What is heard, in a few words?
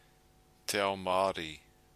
"Te Ao Maori", Maori